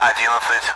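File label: Speech Sound Russian Common AWACS Numbers; DCS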